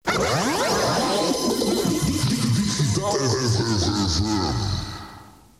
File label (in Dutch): Jingle; radiopiraten